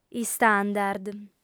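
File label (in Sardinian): "istandard", Sardinian, female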